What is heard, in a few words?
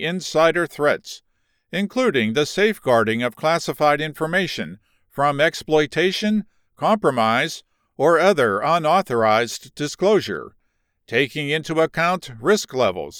Government authoritative elearning